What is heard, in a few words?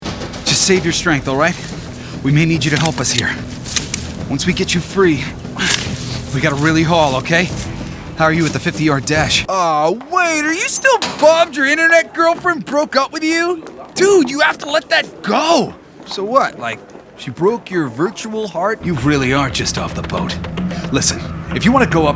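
animation male, Voice Over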